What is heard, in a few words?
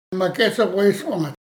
"Makéts'awéswąa", Dane-ẕaa; Rêveurs